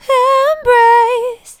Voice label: "Embrace" F Min, Vocals, One-Words; GhostHack

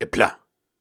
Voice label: Syllables and Imaginary Words, Hard, Viking Vocal

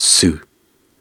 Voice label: Soft Syllables and Imaginary Words, Viking Vocal

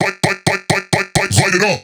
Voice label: Predrop Processed - Light It Up - 130 BPM, Vocals; Cymatics; Euphoria Vocal Sample Pack

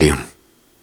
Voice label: Soft Syllables and Imaginary Words, Viking Vocal

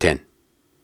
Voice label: Soft Syllables and Imaginary Words, Viking Vocal

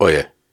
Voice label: Viking Vocal Syllables and Imaginary Words, Hard

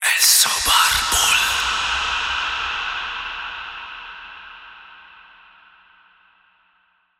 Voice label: Whisper Vocals Am 70Bpm (WET); Construction Kits; Ghosthack; SkyRealm; Kit 20 - Sea Of Souls

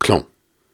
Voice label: Syllables and Imaginary Words, Hard, Viking Vocal

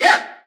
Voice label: "Yeah" Vox; trap; Vocals; Cymatics; Trap Starter Pack

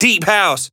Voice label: Phrase - Deep House, Vocal Phrases; Cymatics; House Starter Pack; Vocals

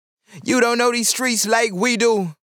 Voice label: Vocal Phrase - Streets - 90 BPM, Dry; Cymatics; Euphoria Vocal Sample Pack

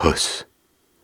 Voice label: Soft Syllables and Imaginary Words, Viking Vocal

